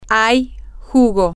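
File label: there is juice, Spanish, female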